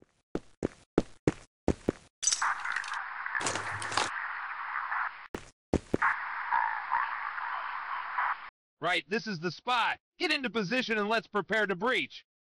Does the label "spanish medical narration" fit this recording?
No